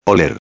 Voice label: "oler", espanhol; palavra do dia

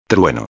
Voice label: "trueno", espanhol

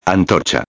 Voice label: "antorcha", espanhol; palavra do dia

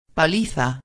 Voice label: "paliza", espanhol

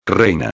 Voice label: "reina", espanhol

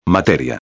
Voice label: "materia", espanhol

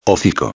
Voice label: "hocico", espanhol; palavra do dia

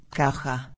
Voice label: "caja", espanhol

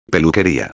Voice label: "peluqueria", espanhol

